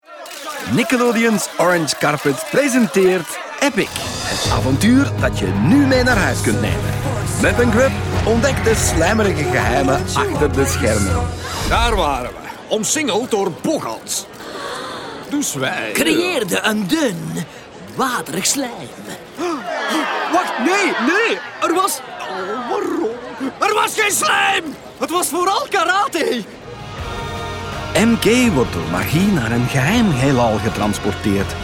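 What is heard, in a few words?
Dutch, Commercial Flemish; GoLocalise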